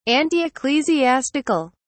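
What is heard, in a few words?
"antiecclesiastical", British English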